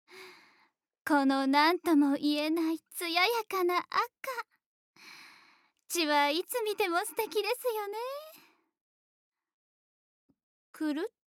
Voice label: 狂人的な女性

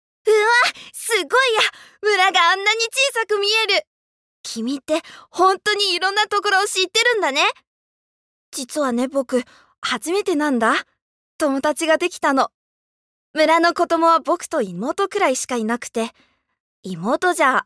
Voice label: Genki Na Shonen

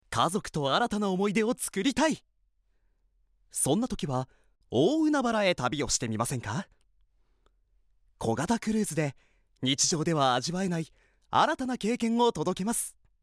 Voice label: ナレーション

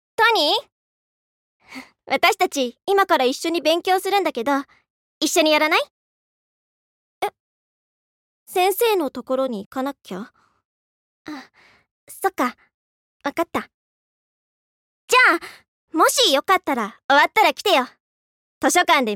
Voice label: Mendoumigaii Onnanoko

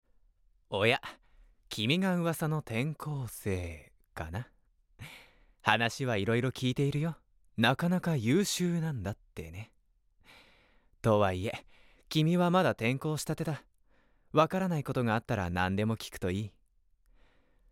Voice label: Seitokaicho, voice sample

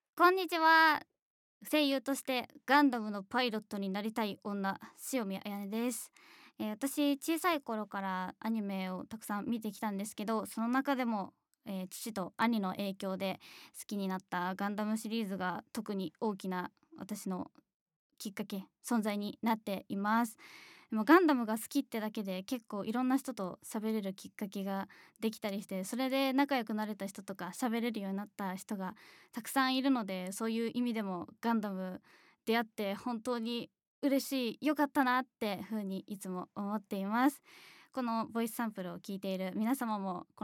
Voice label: Free Conversation, フリートーク

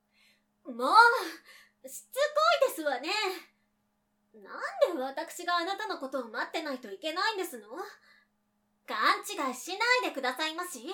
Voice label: Ojosama

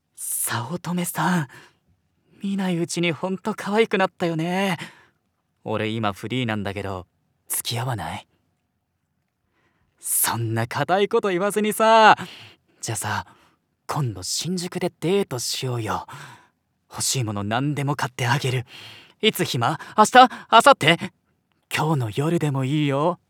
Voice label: Sutokaa Kishitsu Na Otoko

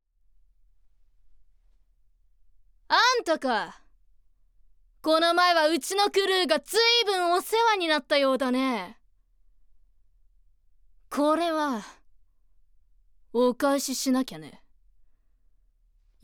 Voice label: Otokomasarina Josei

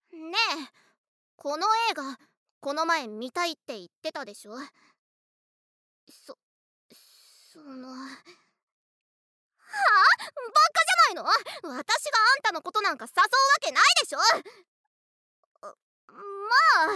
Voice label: ツンデレな女の子

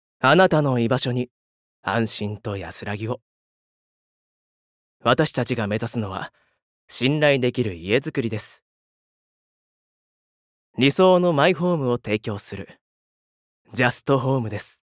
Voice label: Narration Kensetsu Kaisha CM

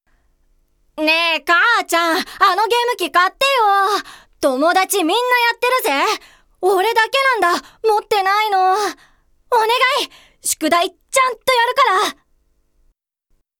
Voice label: Shounen

